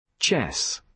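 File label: "chess", British English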